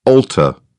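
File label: "alter", British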